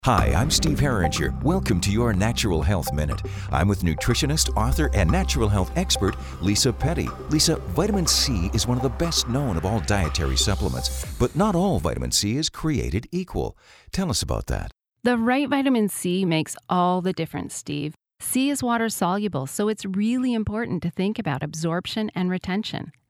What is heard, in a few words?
Radio; Sisu; Ester C